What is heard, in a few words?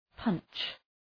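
"punch", English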